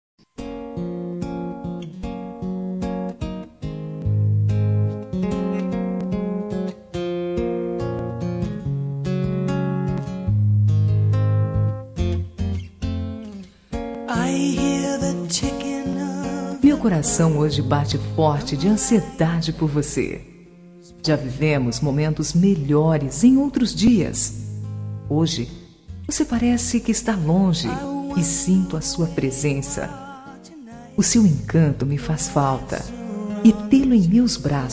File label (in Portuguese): Romântica Com Saudades